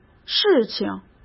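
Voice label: "事情", Mandarin Chinese; chinese flashcards; hsk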